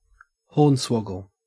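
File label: "hornswoggle", Australian English; pronunciations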